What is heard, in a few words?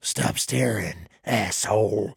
trader male dislike greeting